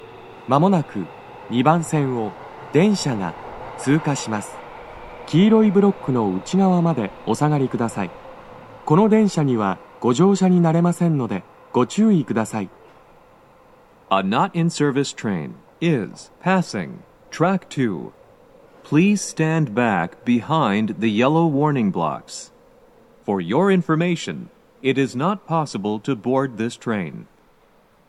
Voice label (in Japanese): 接近放送, 通過電車, 男声; 2番線 綾瀬・北綾瀬・取手方面